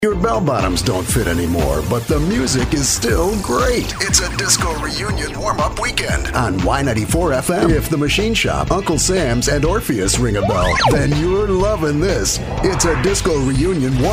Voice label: HOT AC RADIO STATION PROMO